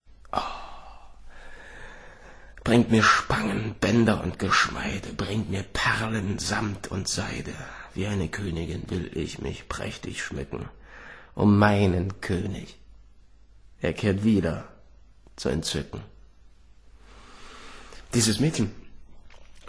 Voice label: erzaehlung, maenner; Berlin; Sprecher, Schauspieler

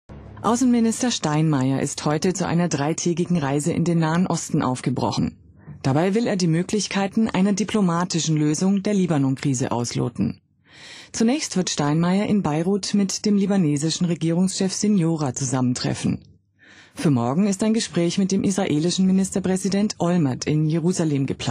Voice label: news hoeher, frauen

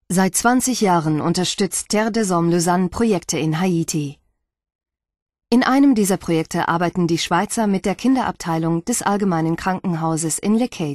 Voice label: Deutsch

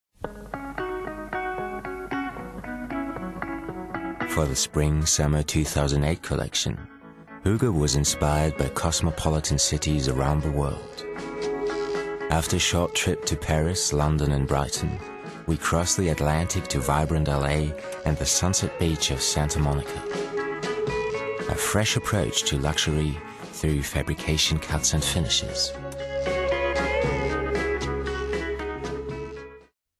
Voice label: Off (englisch), native english

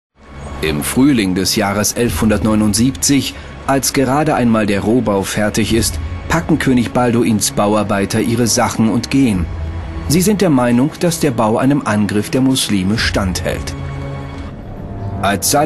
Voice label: Dokumix Kommentar, maenner; Dokumentationen (Kommentar); Leipzig

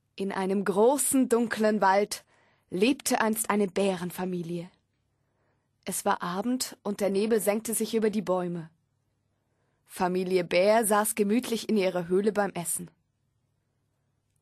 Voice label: Kinderhörbuch, Frauen; Hamburg

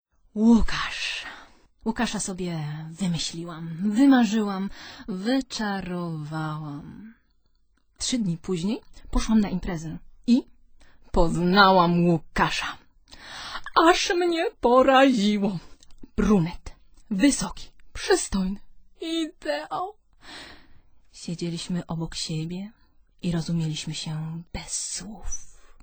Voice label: polnisch, frauen; Geschichte

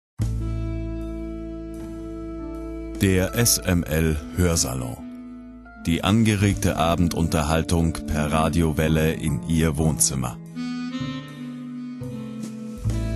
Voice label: Hoersalon, maenner; Obazda Rezept; Sprechergenre: Synchron, Imagefilm, Hörbuch / Hörspiel, Computerspiel.; Sprachalter: 25-40

